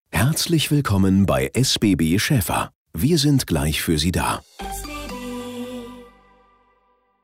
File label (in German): Ansage